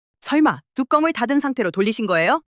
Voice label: anger; monologue

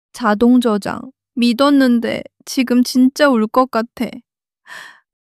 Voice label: crying, monologue

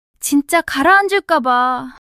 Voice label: fluster, monologue

